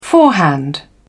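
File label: "forehand", British English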